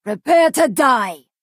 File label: Nord female taunt